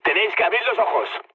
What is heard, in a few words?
player friendly fire, mood low, speech